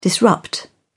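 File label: "disrupt", British English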